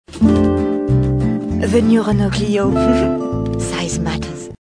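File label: Youngish Sexy, Commercials, British; Renault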